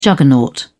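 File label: "juggernaut", British English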